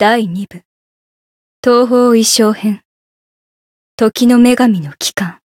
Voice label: Voice title jp; felmina